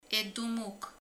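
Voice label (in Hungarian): "ököl", Hungarian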